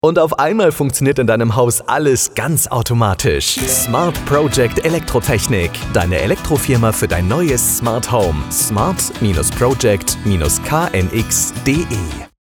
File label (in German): Funkspot; Smart Project